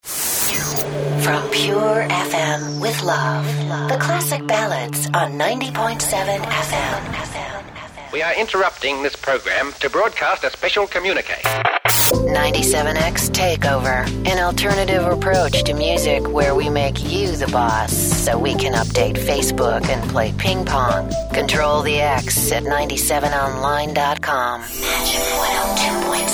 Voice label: Imaging